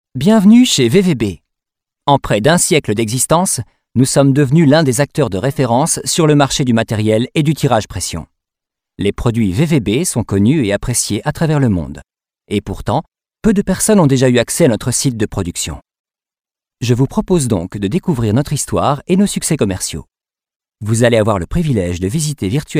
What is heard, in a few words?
French